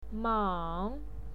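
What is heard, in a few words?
"mang", Mandarin Chinese, third tone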